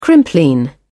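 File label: "crimplene", British English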